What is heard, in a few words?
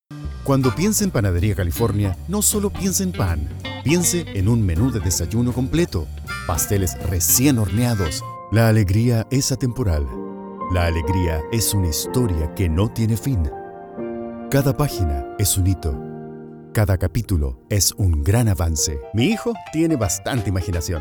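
comercial, Mexican Spanish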